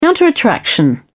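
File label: "counterattraction", British English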